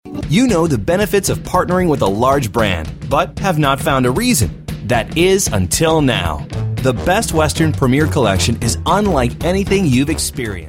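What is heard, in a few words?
US English, male, commercial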